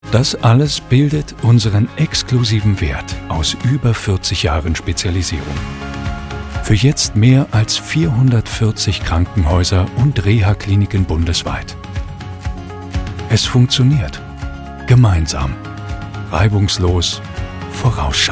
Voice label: Hoerprobe Imagefilm; VAMED

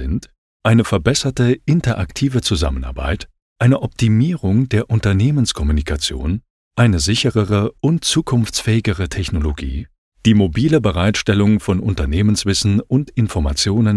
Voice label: Hoerprobe E Learning Relaunch Intranet

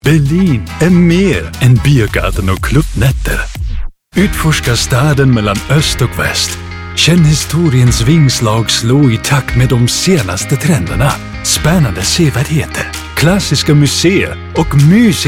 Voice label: Hoerprobe Schwedisch; berlinresor

